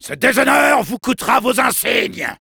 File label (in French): Soldier jeers, fr